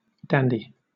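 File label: "dandy", British English; pronunciations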